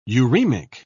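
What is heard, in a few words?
"uraemic", British English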